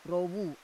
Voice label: "rovu", Roon